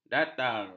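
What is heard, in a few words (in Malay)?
"datar", Malay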